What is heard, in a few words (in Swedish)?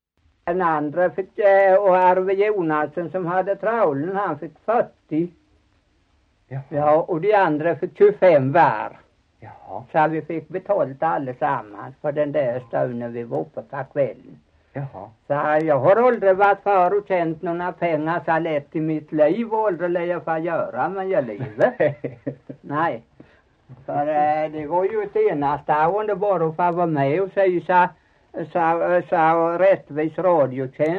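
Torhamn Blekinge, Svenska sagor dialekter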